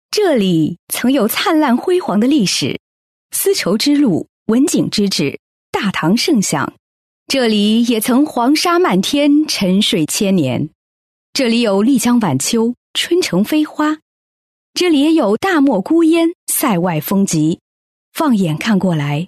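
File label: Mandarin, female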